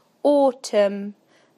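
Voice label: "automn", British English; PictureDictionary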